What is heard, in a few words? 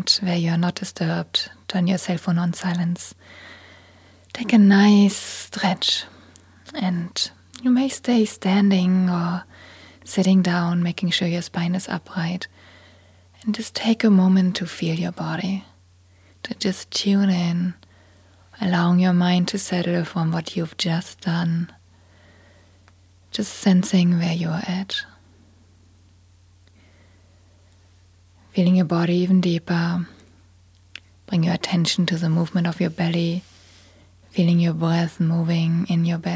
Meditation; Meditation for Busy People